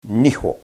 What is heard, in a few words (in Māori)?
"niho", te reo